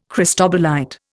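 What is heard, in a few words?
"cristobalite", Indian English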